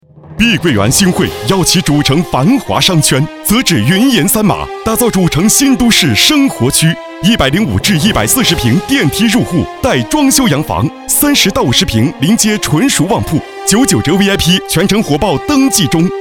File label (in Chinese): 男 广告; 碧桂园广告